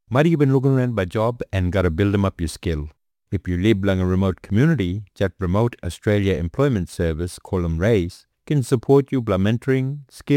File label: Audio message Eastside Kriol NT; RAES